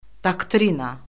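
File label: "doktrina", Azerbaijani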